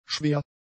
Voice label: "schwer", German; adjectives